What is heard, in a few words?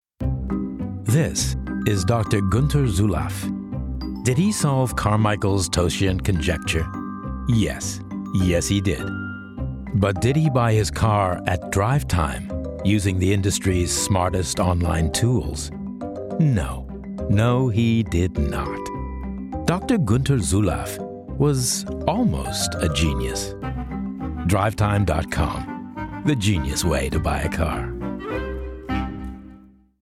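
Promotional English US; GoLocalise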